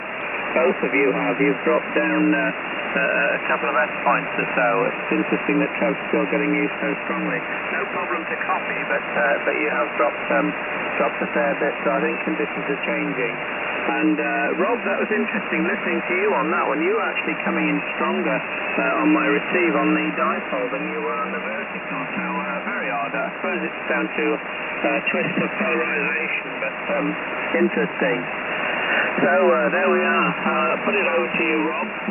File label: 40m SSB G day Bypass